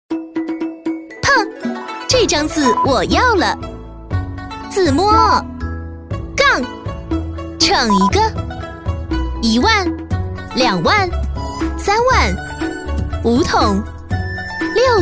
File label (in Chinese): 女 游戏 麻将 激情